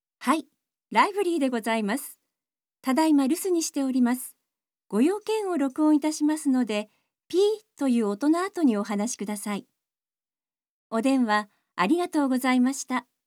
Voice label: Narration